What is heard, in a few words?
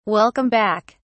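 "welcome back", British English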